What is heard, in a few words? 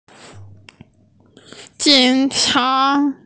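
"jǐng chá", Mandarin Chinese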